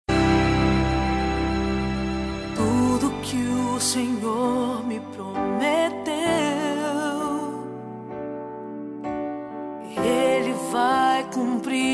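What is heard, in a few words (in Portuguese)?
birthday GENRO female, telemensagem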